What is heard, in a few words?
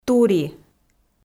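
"тури", Komi, female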